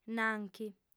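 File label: "nanchi", Sardinian, female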